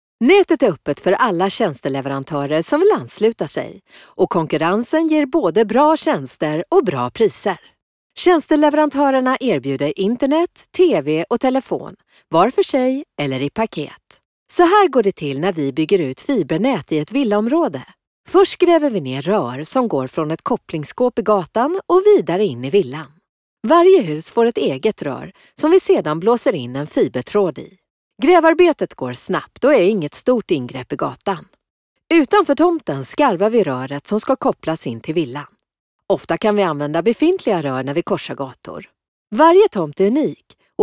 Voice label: Narration straight, swedish voiceovers